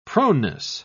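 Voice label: "proneness", British English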